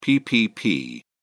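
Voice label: "ppp", English, British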